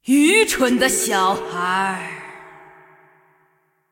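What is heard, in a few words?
Ban, Chinese; Lissandra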